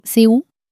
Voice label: "c'est où", French; flashcards